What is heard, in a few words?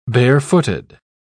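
"barefooted", British English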